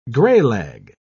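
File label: "graylag", British English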